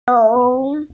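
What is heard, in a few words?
"xiong mao", Mandarin Chinese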